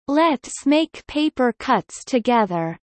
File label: Kelly low; spring festival